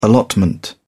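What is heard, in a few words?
"allotment", British English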